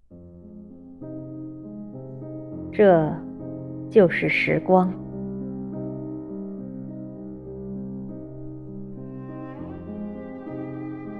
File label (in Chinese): 经典诗歌朗读; 这就是时光